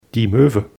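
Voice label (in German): "moeve", German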